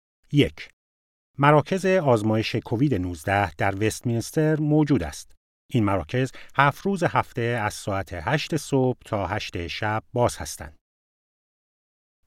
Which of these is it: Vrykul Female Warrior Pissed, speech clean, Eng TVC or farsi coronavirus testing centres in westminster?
farsi coronavirus testing centres in westminster